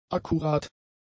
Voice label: "akkurat", german; adjectives